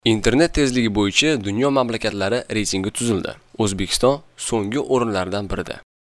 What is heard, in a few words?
Uzbek